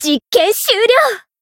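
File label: Voice Japanese; pom; finish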